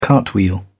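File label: "cartwheel", English, British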